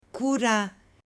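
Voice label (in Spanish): "cura", Spanish, male; AppLenguaje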